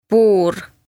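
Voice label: "Puur", Estonian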